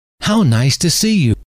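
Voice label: how nice to see you, male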